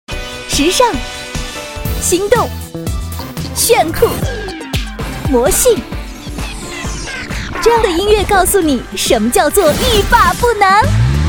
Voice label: 电台包装 片头 男 女 时尚动感; 片头夜色沸腾男28女50

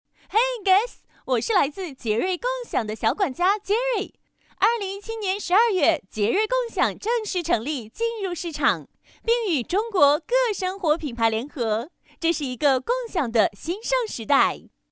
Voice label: 专题, 女; 飞碟说

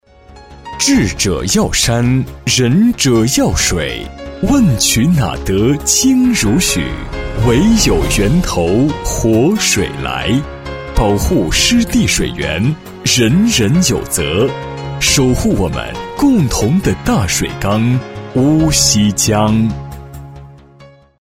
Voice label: 【广告】乌溪江旅游片头男 大气厚重

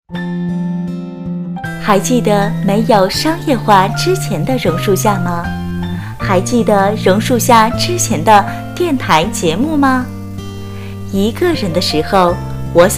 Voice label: 专题 女 抒情; 榕树下

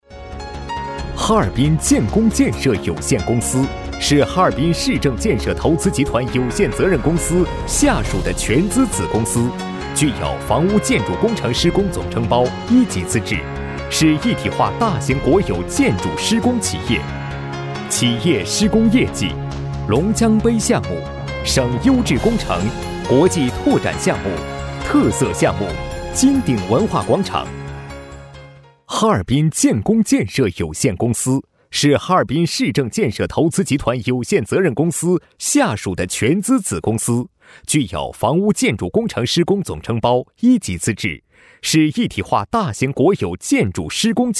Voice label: 专题 男 浑厚大气; 建工建设

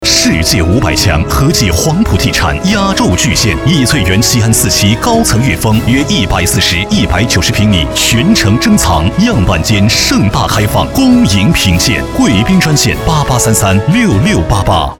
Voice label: 广告 男; 地产; 和记黄埔地产

